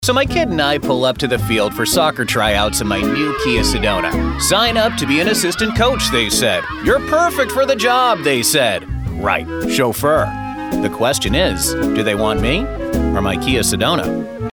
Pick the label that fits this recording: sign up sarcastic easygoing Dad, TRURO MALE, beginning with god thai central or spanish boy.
sign up sarcastic easygoing Dad